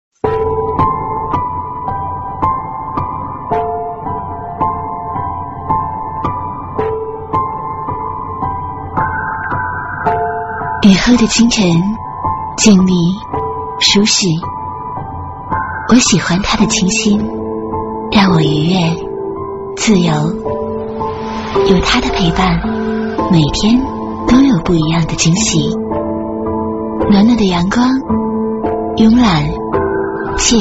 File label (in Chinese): 女, 广告, 内衣品牌广告; 卡岚瑟内衣品牌广告